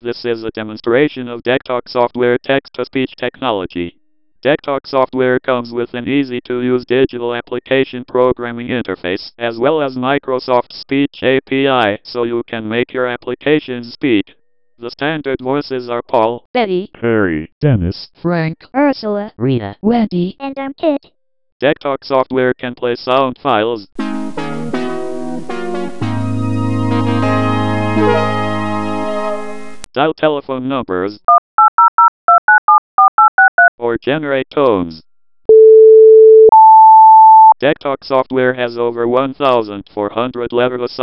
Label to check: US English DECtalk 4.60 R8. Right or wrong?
Right